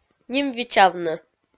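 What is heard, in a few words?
"ним-вичавны", Komi, female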